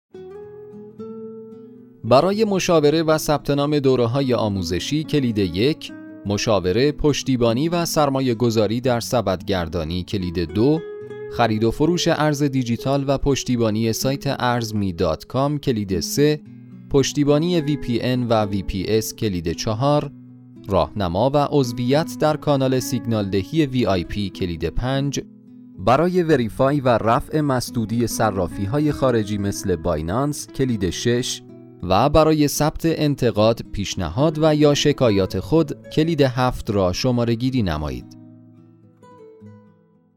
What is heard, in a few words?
Narration M